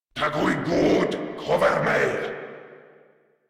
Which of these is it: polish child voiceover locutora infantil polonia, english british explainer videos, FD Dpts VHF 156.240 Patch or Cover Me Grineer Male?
Cover Me Grineer Male